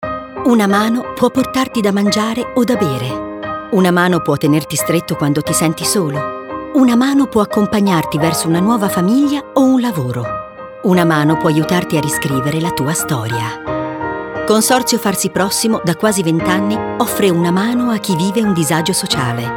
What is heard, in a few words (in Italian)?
spot radio